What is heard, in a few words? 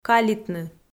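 "калитны" Komi, female; kpv audio dict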